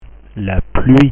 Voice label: "la pluie", French; vocabulary